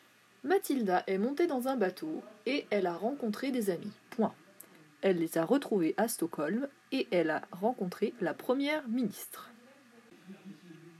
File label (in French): Dictée rapide